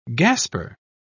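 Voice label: "gasper", British English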